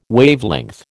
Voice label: "Wavelength", American